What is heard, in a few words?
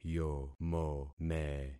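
"yomome", concatenated syllables; Affixing experiment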